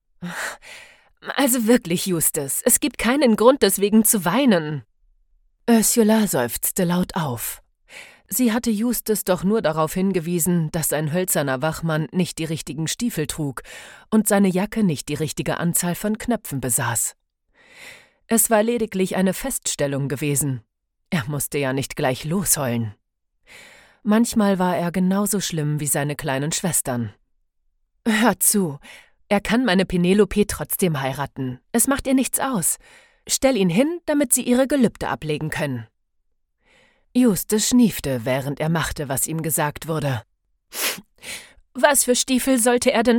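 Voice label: German Audiobook female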